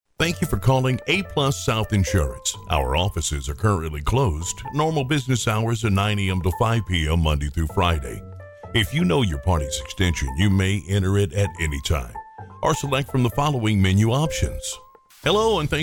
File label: Phone